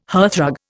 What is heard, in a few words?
"hearthrug", Indian